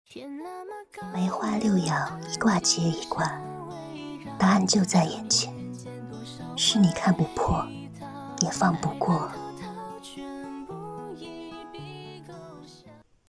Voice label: 旁白 少御音

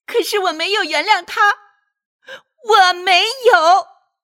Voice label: 气愤

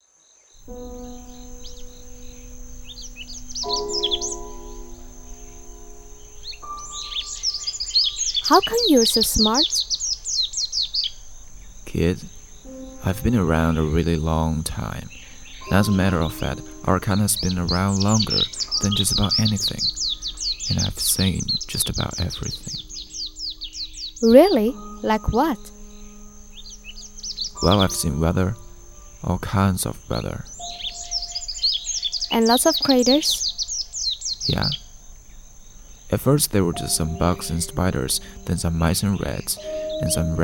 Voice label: 大自然在说话男声

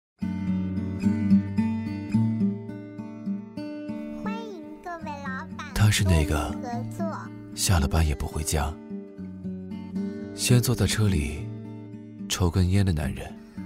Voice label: 走心 广告; 海尔

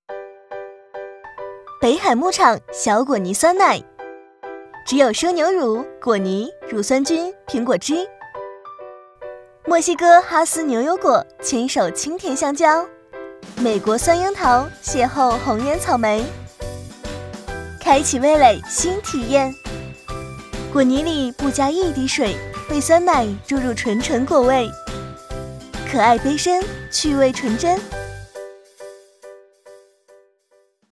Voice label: 清新广告; 北海牧场

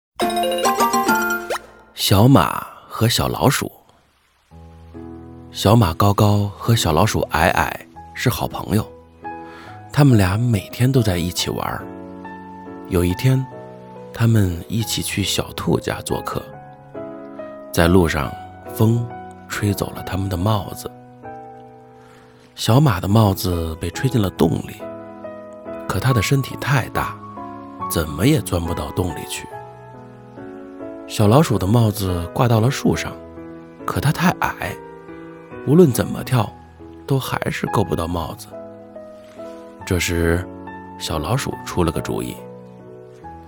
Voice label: 儿童故事; 小马和小老鼠

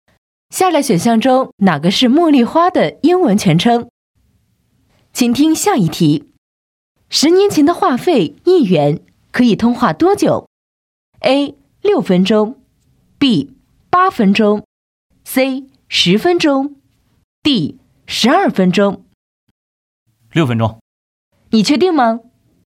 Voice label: 角色口语化主持人

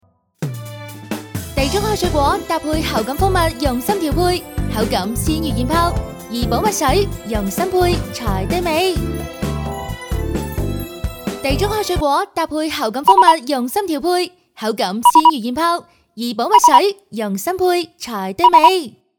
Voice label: 粤语品牌广告; 怡宝蜜水

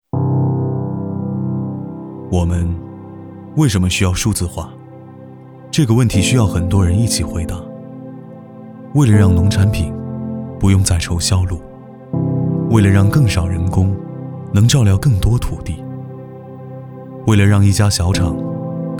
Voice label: 走心 年轻 自然

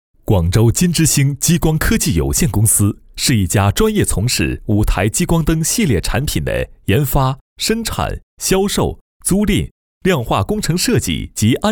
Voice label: 公司介绍 男

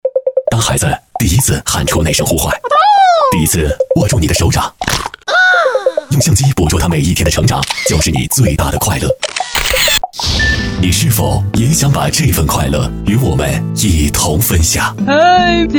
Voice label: 萌宝秀 宣片

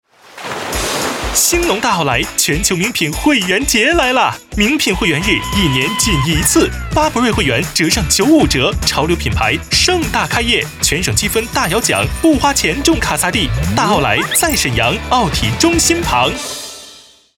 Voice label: 广告 激情

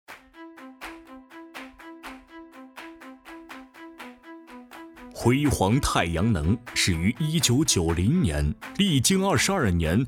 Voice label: 企业宣传专题广告大气

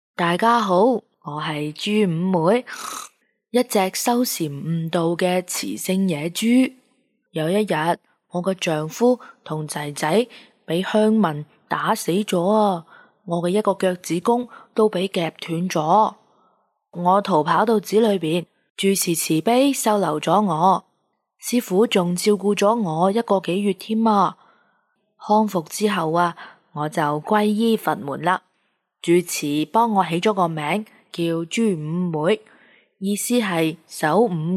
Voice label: 老人声

